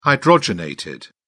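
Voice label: "hydrogenated", British English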